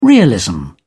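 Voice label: "realism", British English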